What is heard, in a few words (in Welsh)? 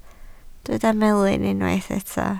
"melyn" Welsh